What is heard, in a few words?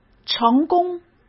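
"成功", Mandarin Chinese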